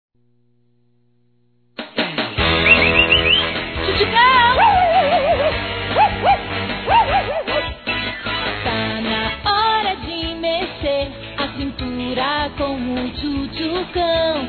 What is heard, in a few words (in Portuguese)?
Aniversário Irmã Feminino; Aniversário Infantil; Telemensagens